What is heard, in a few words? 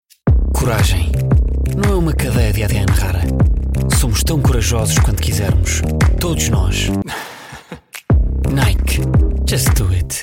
COMMERCIALS